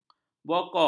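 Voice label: "bokop", Malay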